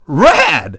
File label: dj nice; DJ VOICE